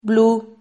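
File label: "blu", Spanish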